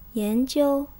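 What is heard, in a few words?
"yan2jiu1", Chinese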